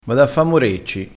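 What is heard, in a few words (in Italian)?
"morecci", dialettale crespinese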